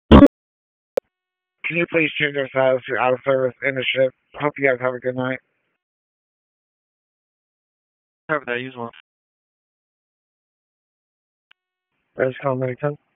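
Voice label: EMS Dispatch, p25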